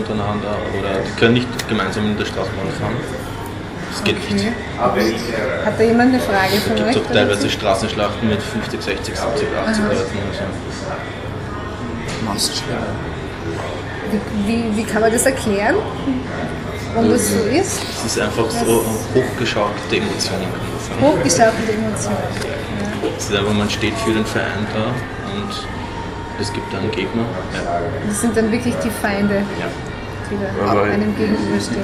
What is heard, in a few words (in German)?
Fussball Faninterview Geschichte Austria Fans